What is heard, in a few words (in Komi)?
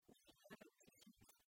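"ми велӧдчысьяс", Komi, female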